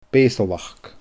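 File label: "beusalachd", Scottish Gaelic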